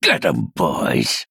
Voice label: Male Dwarf